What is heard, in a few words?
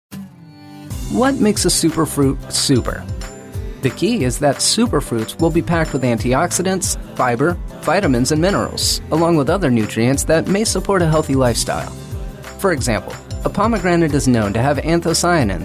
Male; Super Fruits